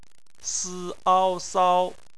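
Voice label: "sao", Mandarin Chinese; phonetics; bpmf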